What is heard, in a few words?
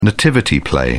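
"nativity play", British English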